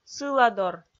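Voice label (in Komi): "сыладор", Komi, female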